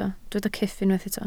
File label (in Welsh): "cyff", Welsh